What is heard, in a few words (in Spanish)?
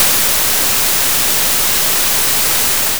s pvadd ruido, Vocoder